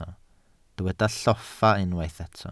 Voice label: "lloffa", Welsh